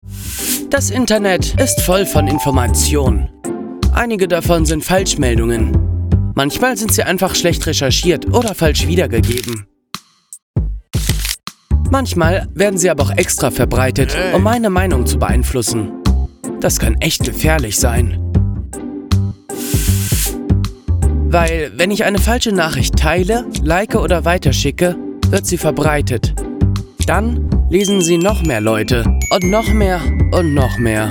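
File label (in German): erklärvideo fake news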